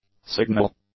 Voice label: "signal", English; nouns